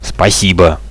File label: friendly fire, Russian